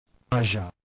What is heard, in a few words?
long z, consonant